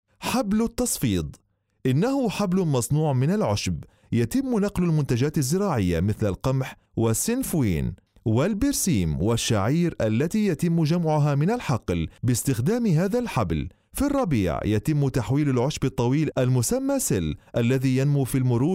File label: arabic